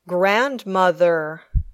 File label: "grandmother", English